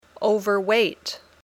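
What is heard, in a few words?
"overweight", English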